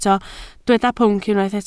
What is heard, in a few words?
"pwnc", Welsh